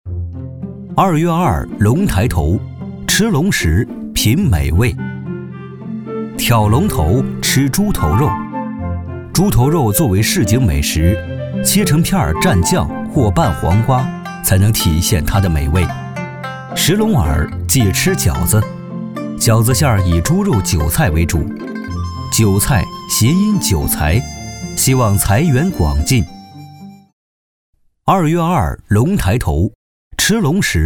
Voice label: 男, 纪录片, 讲述

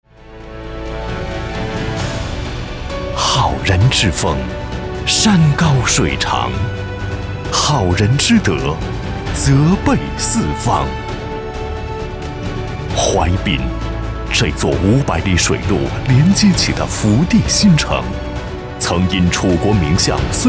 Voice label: 广告; 淮滨好人馆

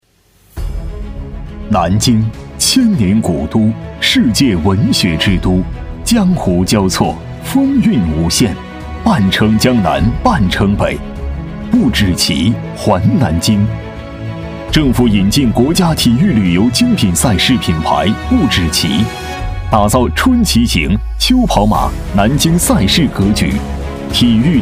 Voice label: 男 激昂高亢 汇报; 南京不止骑汇报

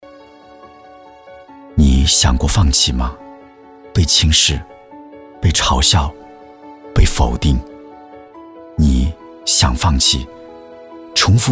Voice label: 男, 独白, 青年走心旁白微电影; 不放弃

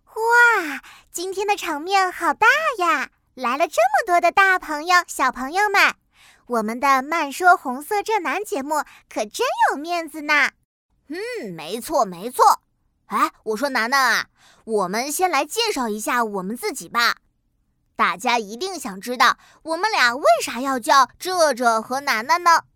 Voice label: 角色童声

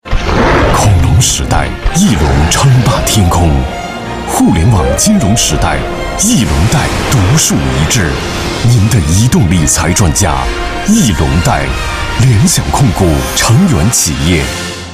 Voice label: 男, 广告, 沉稳霸气; 翼龙贷

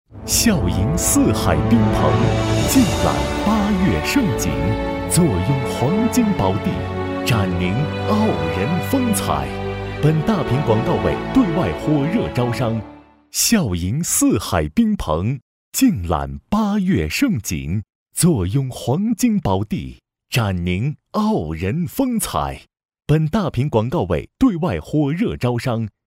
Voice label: 男 广告 招商广告

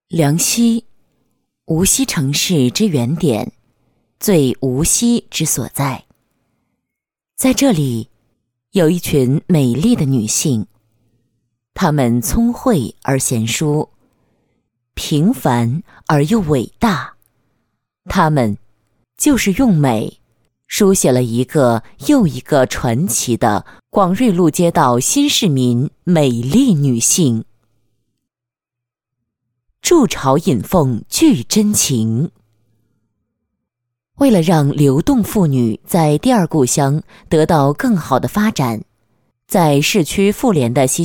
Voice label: 女 专题片; 广瑞路妇女联谊会

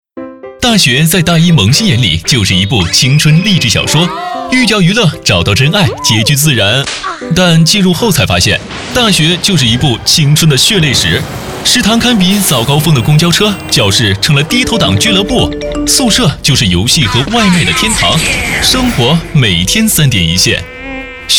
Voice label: 男 校园; 飞碟说; 华硕校园合伙人

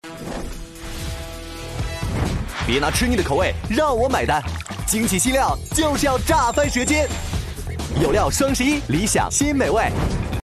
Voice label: 男 广告 年轻活力; 良品铺子

